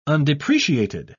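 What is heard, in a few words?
"undepreciated", British English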